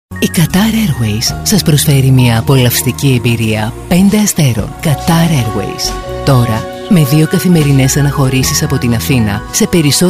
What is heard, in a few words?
F commercial